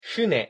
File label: "fune", ja